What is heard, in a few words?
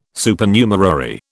"Supernumerary", British